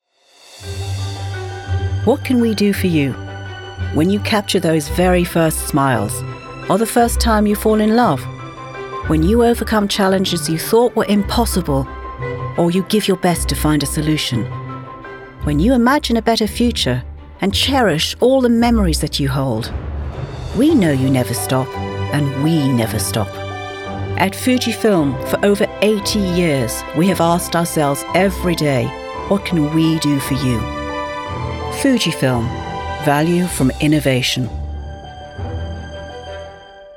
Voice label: Corporate